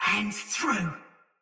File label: Attack Normal Soldier Mace Agent Female; Battle; Sinecure Melee